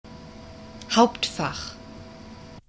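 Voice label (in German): "Hauptfach", German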